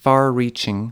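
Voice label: "far reaching", English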